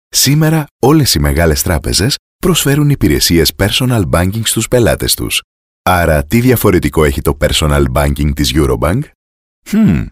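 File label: Greek, male, explainer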